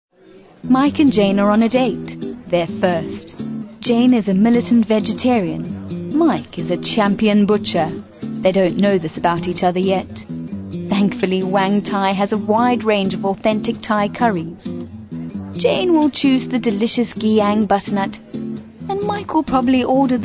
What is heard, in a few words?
Natural